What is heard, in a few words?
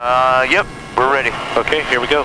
ATC Heli Ready To Go; Transport-AirMarine; PFx Brick